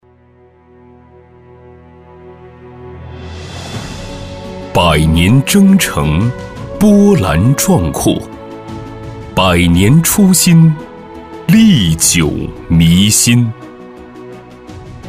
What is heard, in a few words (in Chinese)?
样音 党政